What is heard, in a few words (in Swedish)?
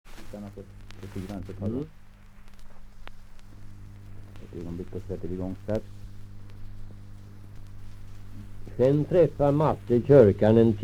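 Riala Uppland, dialekter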